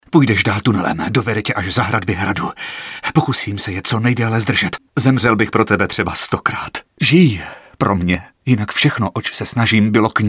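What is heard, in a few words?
Male Czech drama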